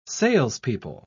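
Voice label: "salespeople", British English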